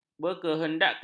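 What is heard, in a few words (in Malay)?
"berkehendakkan", Malay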